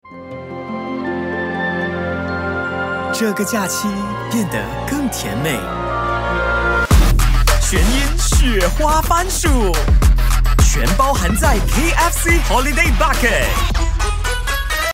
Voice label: Mandarin - Guy Next Door, Romantic, Boyfriend, Excited; KFC